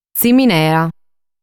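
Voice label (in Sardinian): "Tziminea", Sardinian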